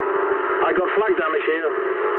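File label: English damaged aa mood low; War Thunder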